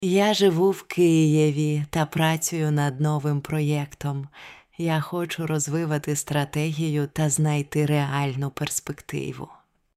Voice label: Integrated Natural Speed, ukrainian pronunciation

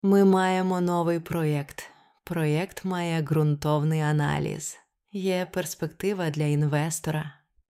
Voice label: Professional Reading Slow, Ukrainian; pronunciation

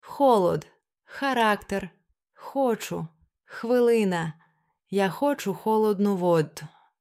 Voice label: Х Deep Fricative, ukrainian pronunciation

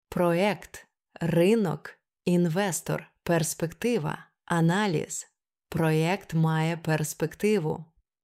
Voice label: Professional Stress, ukrainian pronunciation

